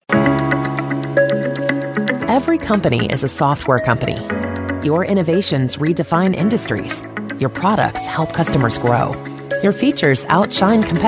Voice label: american corporate

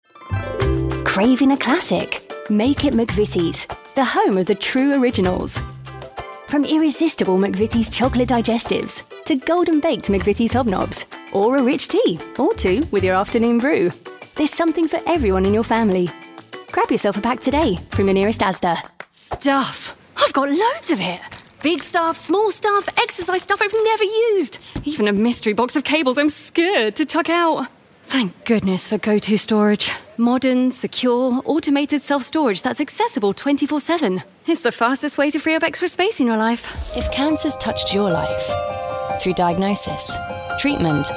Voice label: radio spots

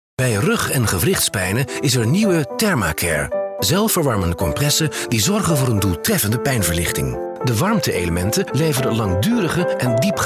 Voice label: dutch voiceover commercial

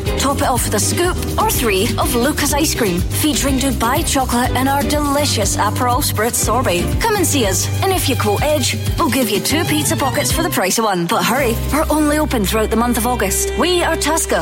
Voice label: Scottish Italian street food ad

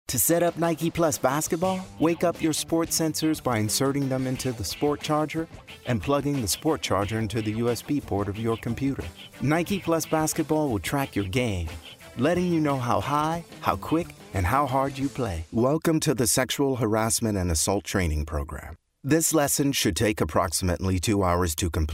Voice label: american e-learning, American Male

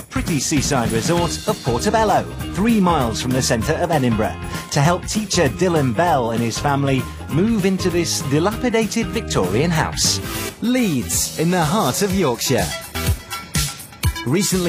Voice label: narration

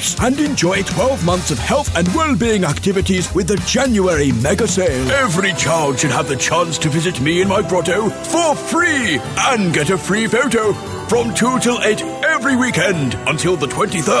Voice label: santa claus father christmas, voiceover

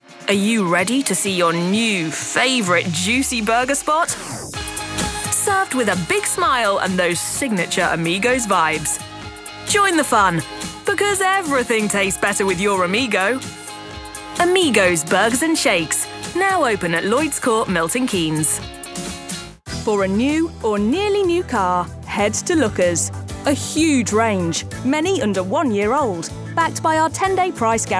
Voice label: radio

